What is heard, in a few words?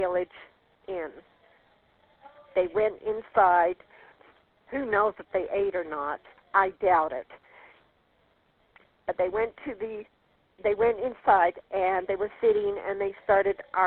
Prison Calls